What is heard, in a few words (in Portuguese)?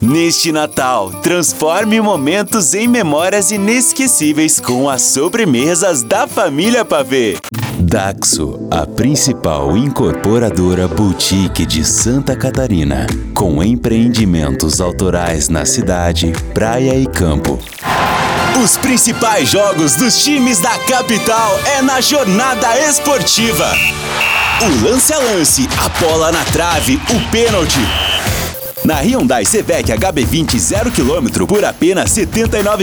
VOZES MASCULINAS, Padrão Caricata